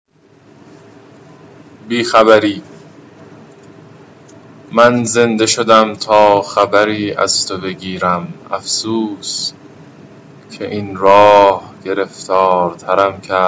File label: poem